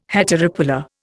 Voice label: "heteropolar", Indian